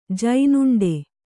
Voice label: "ಜೇನುಂಡೆ", Kannada; jēnuṇḍe; noun; a kind of fire-cracker.

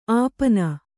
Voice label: "ಅಪಿನಿ", Kannada

